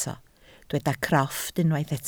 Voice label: "crofft", Welsh